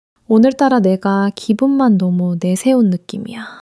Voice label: sadness, female

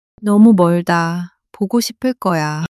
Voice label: sadness

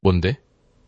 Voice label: neutral

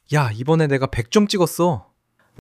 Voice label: excitement

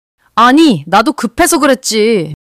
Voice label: anger

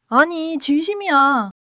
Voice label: joy

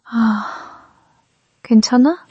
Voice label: sadness

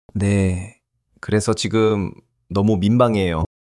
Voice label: shy

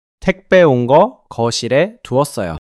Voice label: neutral

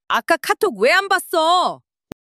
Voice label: anger

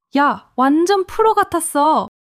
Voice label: excitement

